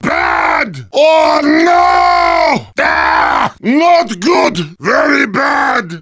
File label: Heavy negative